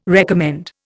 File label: "recommend", Indian